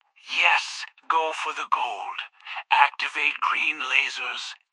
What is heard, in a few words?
happy; fireball; fertig classic games